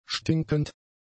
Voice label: "stinkend", German; adjectives